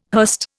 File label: "hurst", Indian